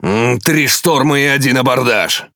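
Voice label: Russian Speech Quick Commands Caramba; World Of Warships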